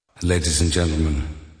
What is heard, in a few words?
ladies and gentlemen, male spoken word; MALE MISC